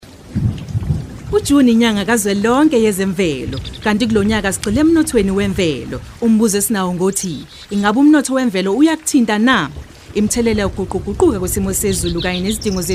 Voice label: zulu; IsiZulu; National Environment Month 2012